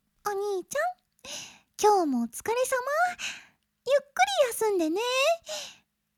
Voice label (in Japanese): おつかれさまボイス